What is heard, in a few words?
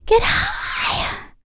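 female spoken, R&B Dance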